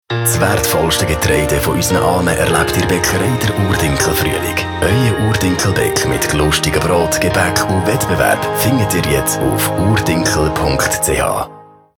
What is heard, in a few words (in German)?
Radiospot; IG Dinkel; Fruehling 2011